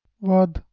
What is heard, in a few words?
"waad", Balochi